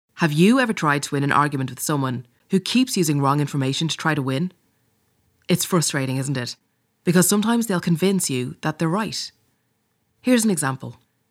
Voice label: News Dry Rev Tag; GB News